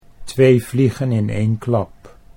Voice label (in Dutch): "2 vliegen in 1 klap", Dutch